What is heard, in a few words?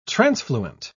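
"transfluent", British English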